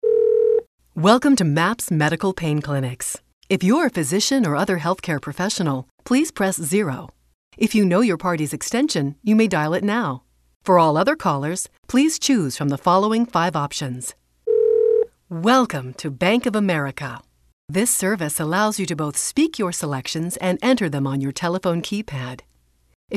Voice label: IVR